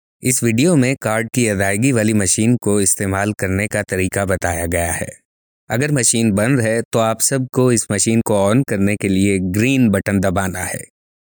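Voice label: Urdu Pakistan male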